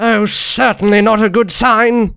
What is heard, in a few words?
jeers